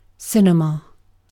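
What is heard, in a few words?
"cinema", British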